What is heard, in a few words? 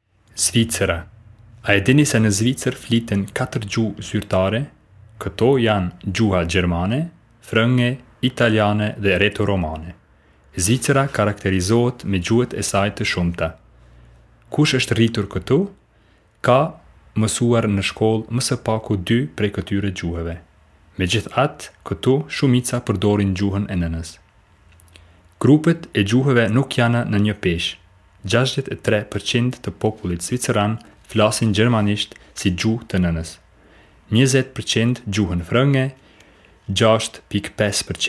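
Albanian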